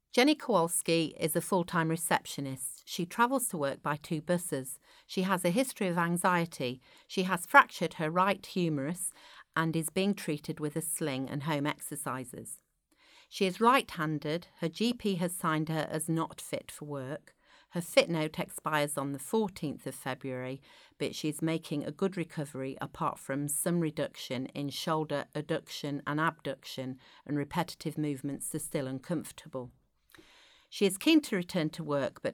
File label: narration; AHP advisory fitness for work report; professional development